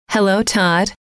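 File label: "hello todd", female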